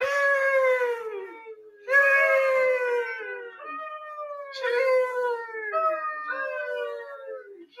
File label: sheeeeeeeeeeeeeeeeeeeesh